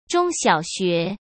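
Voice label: "中小学", Mandarin Chinese; chinese-flashcards; hsk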